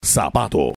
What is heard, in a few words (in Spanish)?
"zapato", es